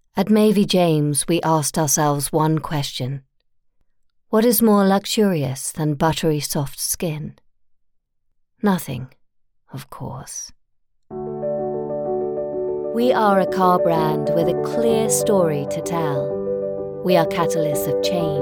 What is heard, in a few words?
general, en-NZ